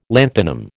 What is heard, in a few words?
"lanthanum", American